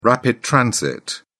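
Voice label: "rapid transit", British English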